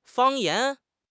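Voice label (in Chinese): "方言", Mandarin Chinese; HSK6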